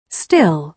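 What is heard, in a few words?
"still", British English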